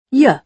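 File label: "you", British English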